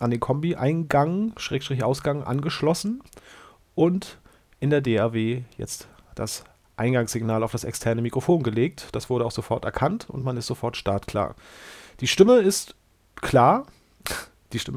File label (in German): rode nth 100m sprachtest